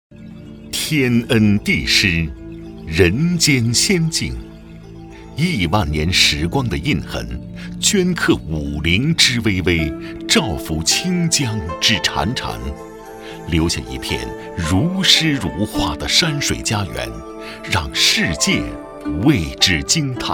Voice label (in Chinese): 男 宣传片 西安